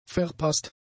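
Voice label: "verpaßt", German; adjectives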